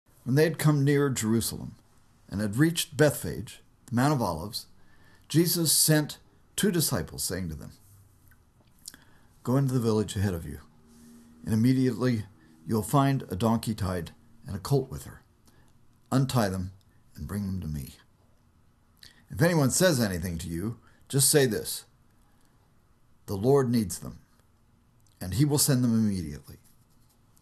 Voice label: storytelling